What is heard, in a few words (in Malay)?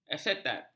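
"asetat", Malay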